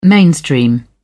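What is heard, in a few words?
"mainstream", British English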